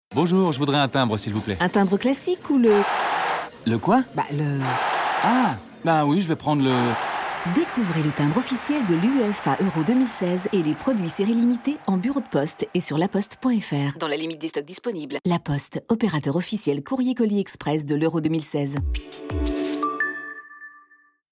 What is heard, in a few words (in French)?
Pub timbre; la poste